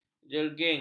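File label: "jerging", Malay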